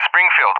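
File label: "Springfield", English, USA; CallSign; DCS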